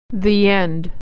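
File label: "end", American English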